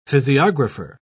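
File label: "physiographer", British English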